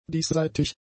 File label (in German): "diesseitig", german; adjectives